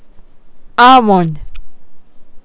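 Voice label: "almond", Japanese, female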